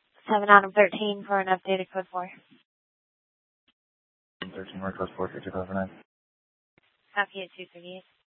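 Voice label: police dispatch, scanner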